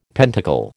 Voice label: "pentacle", American

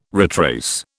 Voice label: "retrace", British

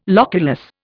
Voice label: "loculus", Indian

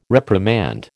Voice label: "reprimand", American

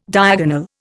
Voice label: "diagonal", Indian

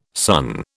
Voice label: "sunn", British

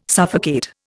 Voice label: "suffocate", Indian

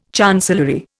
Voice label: "chancellery", Indian

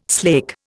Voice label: "slake", Indian

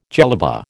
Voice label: "jellaba", American

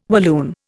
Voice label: "Walloon", Indian

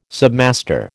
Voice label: "submaster", American

